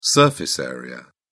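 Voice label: "surface area", British English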